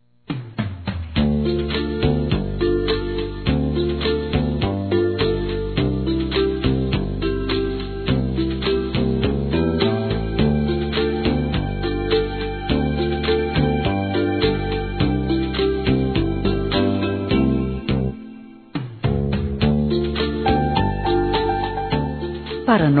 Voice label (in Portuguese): Aniversário Cliente Feminino, Telemensagens Empresarial; Telemensagens 2017